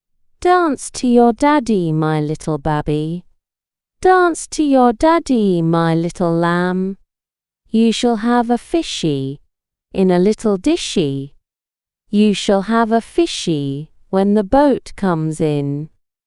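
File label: Dance to your Daddy, female British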